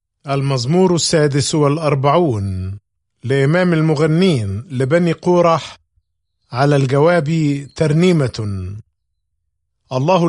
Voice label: Psalm, arabic bible; psalms